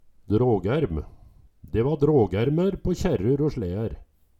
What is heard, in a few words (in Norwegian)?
"drågærm", Numedalsmål